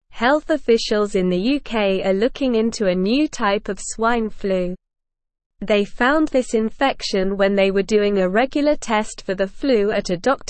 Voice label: Upper Intermediate SLOW Reading, English; English Newsroom; UK Detects First Case of New Swine Flu Strain